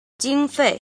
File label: "jin", Chinese